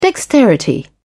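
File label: "dexterity", British English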